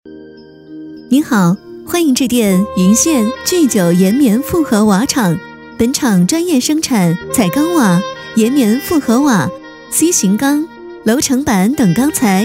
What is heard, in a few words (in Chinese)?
女 彩铃 建材 瓦厂